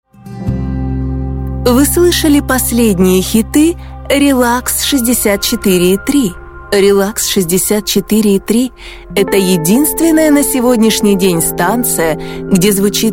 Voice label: russian voice over female commercial